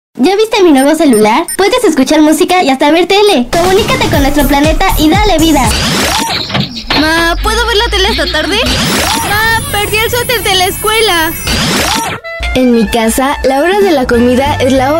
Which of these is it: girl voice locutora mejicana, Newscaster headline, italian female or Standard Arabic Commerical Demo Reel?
girl voice locutora mejicana